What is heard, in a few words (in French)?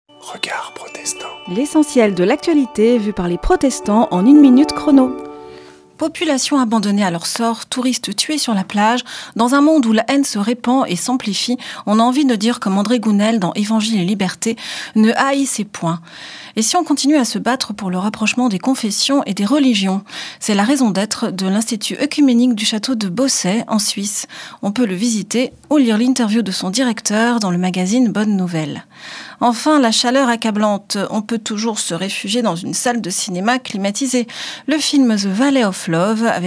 Spot radio; Regards protestants